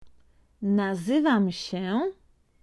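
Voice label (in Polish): "nazywam się", Polish; Vocabulario: frases y palabras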